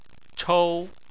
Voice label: "chou1", chinese